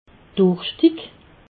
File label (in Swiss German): "durschtig", alsace